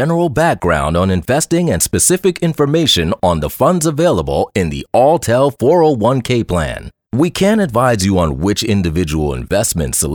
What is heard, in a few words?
e-learning voice only samples; eLearning Voiceovers